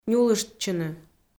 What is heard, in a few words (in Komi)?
"нюлыштчыны", Komi